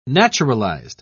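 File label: "naturalized", British English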